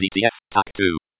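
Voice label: DTF Tac 2, Computer Voice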